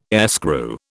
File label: "airscrew", British